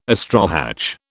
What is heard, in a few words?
"astrohatch", British